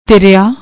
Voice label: "tiriaa", Punjabi; ਤਿਰਿਆ